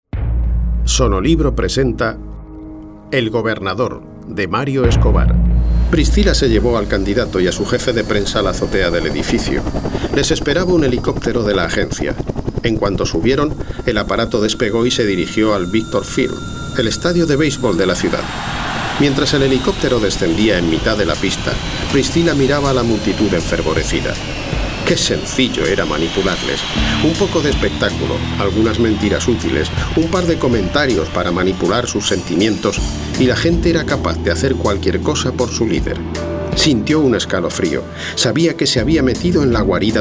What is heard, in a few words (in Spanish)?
narrado; apocalipsis iv el gobernador